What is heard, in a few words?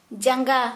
Santali foot